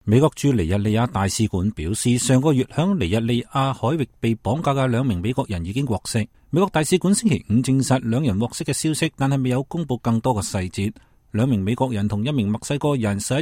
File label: cantonese; Nigeria